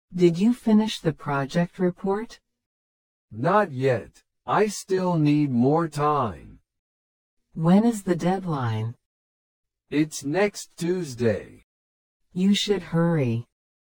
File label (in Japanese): 会話 スロー